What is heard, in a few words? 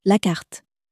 "carte", French